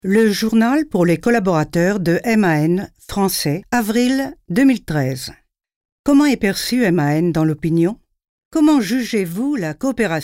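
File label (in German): French Kommentar Neutral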